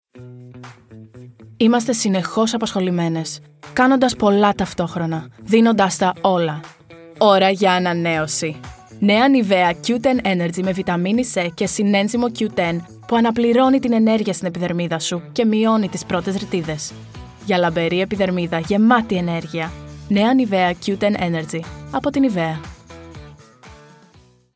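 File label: Greek, commercial; GoLocalise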